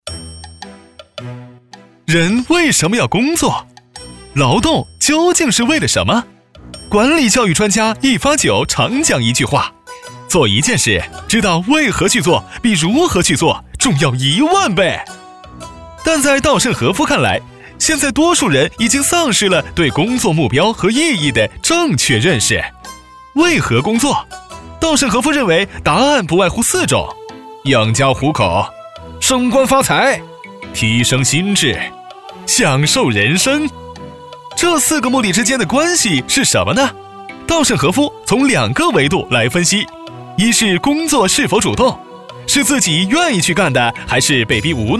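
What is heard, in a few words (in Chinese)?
男国大音-轻松幽默; 飞碟说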